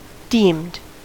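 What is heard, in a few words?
"deemed", US English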